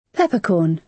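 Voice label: "peppercorn", British English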